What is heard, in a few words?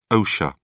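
"osha", British English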